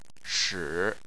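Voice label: "shi" tone 3, Chinese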